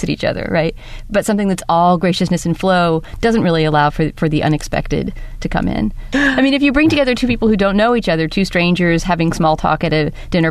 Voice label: Conversation